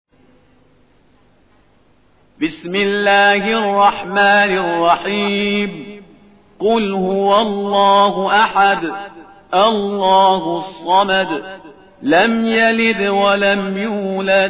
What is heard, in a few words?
Alekhlas, quran; الإخلاص